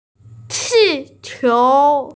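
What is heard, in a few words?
"qi qiu", Mandarin Chinese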